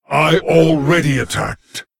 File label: Male Lich ERROR I ATTACKED